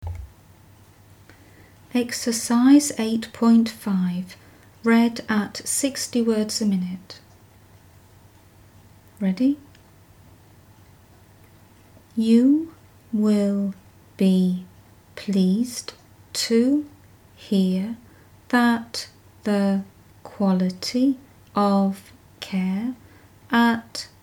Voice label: 60wpm; Teeline